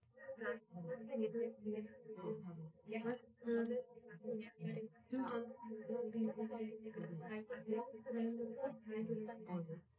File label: female, live rec